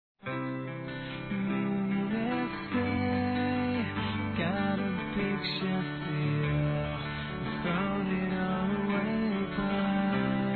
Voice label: ANIVERSÁRIO DE IRMÃO F, Telemensagens, Fem; Aniversários; Aniv Familiar

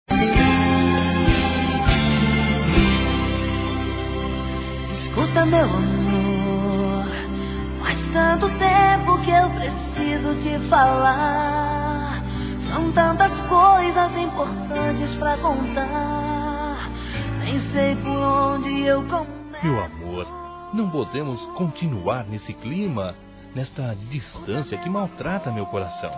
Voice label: ROMANTICA, Telemensagens; ESTÃO SEPARADOS; Telemensagens 2017; Românticas

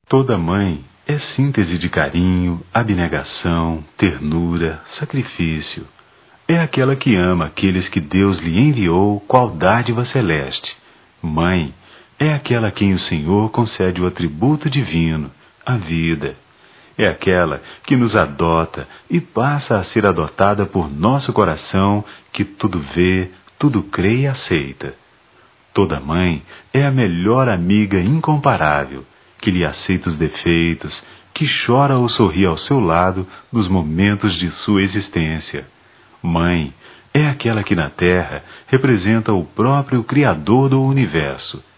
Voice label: Mãe adotiva, masc; Telemensagens 2017; Dia das Maes

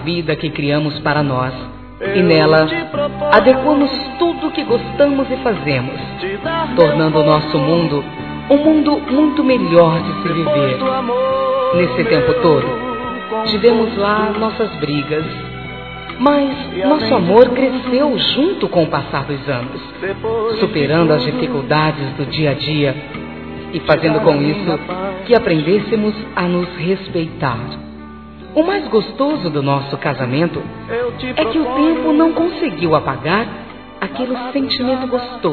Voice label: Bodas de Prata Feminino, Telemensagens; Bodas e Casamento

